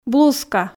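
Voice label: "блузка", Komi, female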